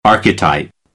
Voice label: "archetype", American English

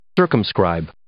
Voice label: "circumscribe", English

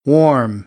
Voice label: "warm", American English; ɔːr - ɔː

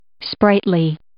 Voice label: "sprightly", English

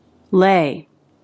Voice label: "lay", English

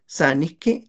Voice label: "sanijki", Náhuatl; parece; seems

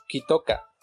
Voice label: "kitoka", Náhuatl; lo sigue

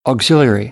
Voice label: "auxiliary", American English; ɑː - ɔː

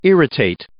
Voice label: "irritate", English; verb

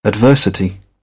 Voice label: "adversity", British; ɾ - t; English

